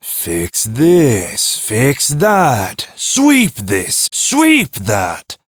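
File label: Ambient Male Sweeper, Dialog; Duviri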